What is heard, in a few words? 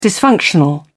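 "dysfunctional", British English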